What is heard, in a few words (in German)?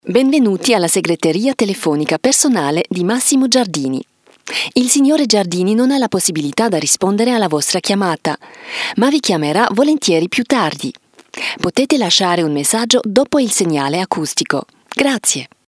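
Telefonansage, Italian, Swiss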